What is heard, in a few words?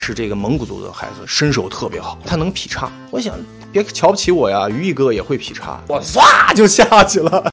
interview